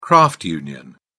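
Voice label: "craft union", British English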